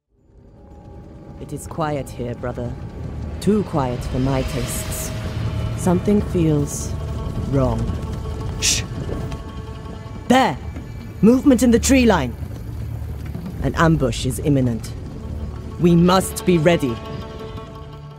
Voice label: Chinese, seductive, matter of fact, enthusiastic, serious; Total War Three Kingdoms